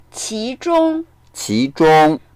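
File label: "qi2zhong1", Mandarin Chinese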